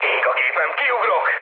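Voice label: Hungarian on fire mood low, speech; War Thunder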